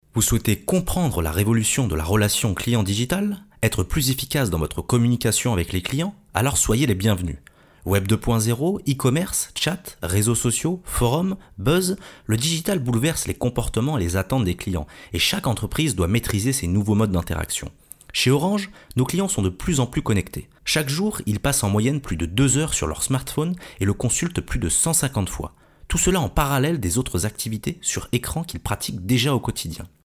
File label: FRENCH